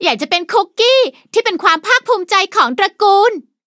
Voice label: level up, th; Cookie Run